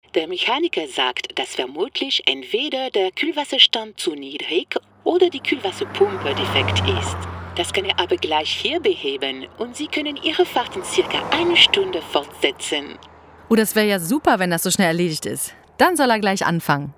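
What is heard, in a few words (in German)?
hörspiel deutsch-französische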